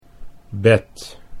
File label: "bed", Dutch